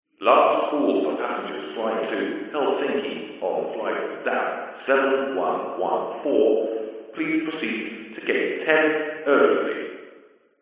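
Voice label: public places airport announcement male english last call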